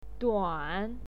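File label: "duan3", Mandarin Chinese pinyin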